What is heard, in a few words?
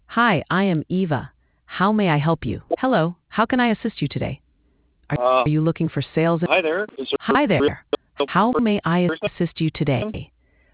conversation